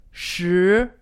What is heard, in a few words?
"shi", Chinese; Survival Chinese